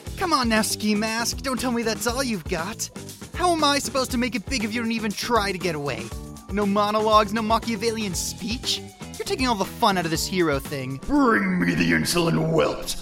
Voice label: Character

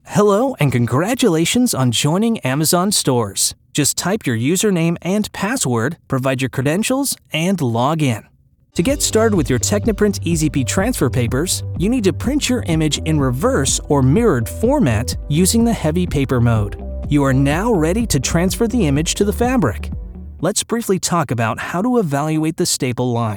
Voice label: Elearning

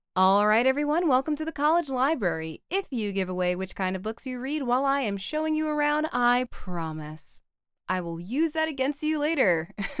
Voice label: Character Sampler; English - USA and Canada

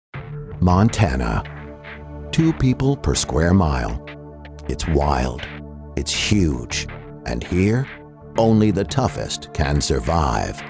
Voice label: DOCUMENTARY

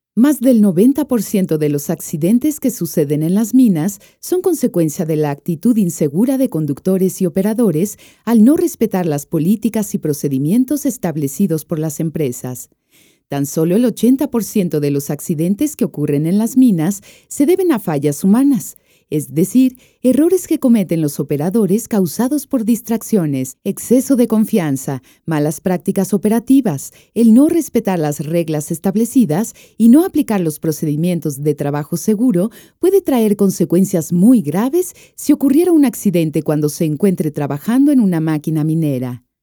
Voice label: Spanish Documental

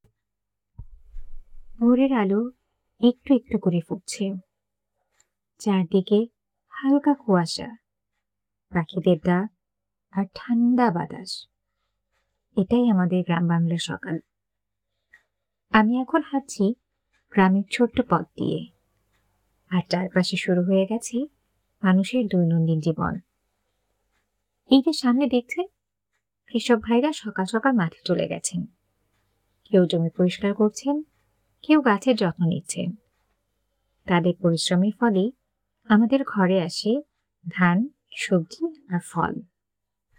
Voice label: Bengali nature

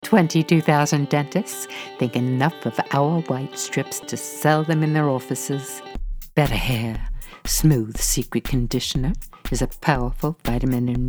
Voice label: COMMERCIAL HOME STUDIO PRODUCED

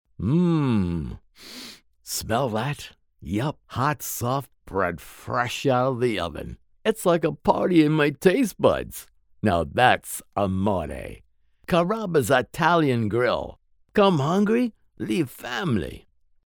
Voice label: Radio; Carrabbas

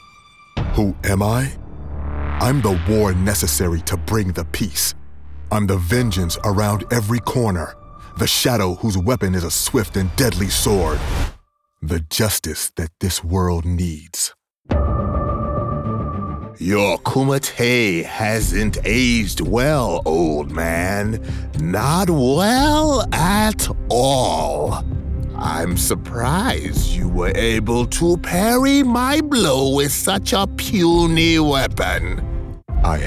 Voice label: character video game

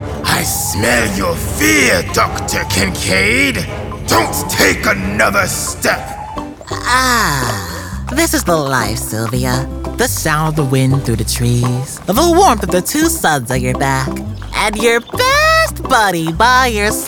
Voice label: Animation

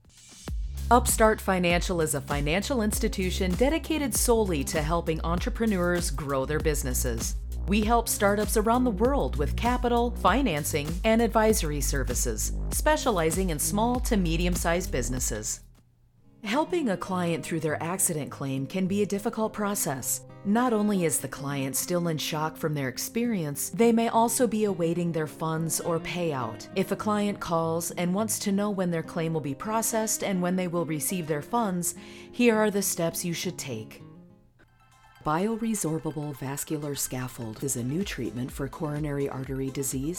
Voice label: Corporate Narration

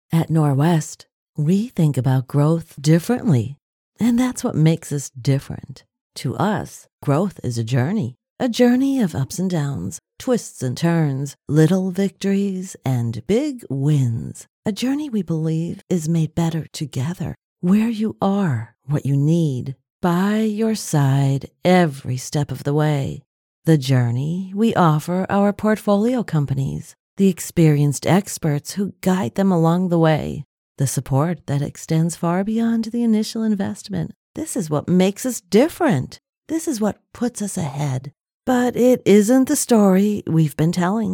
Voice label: Corp warm encourg informed

